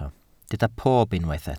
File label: "pob", Welsh